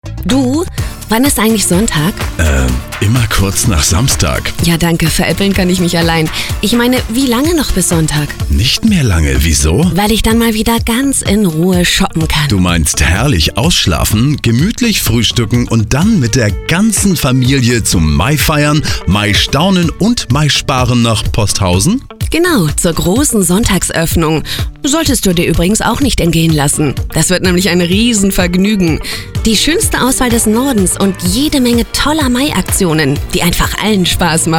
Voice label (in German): Einkaufsradio, Moderation